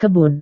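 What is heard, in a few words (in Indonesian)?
"kebun", Indonesian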